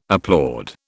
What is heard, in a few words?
"applaud", British